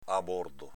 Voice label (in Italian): "abordo", Veneto; abórdo sost. aborto